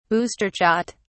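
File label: "booster shot", British English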